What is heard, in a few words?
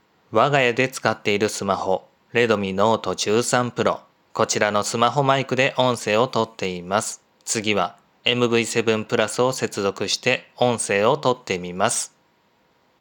shure mv7